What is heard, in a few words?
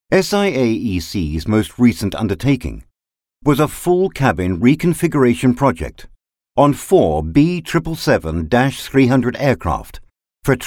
UK English VO Male